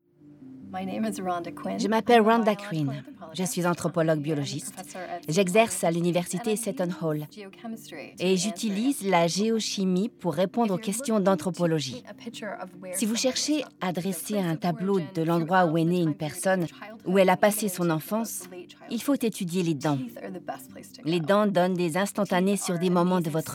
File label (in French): Histoire Enquete voice over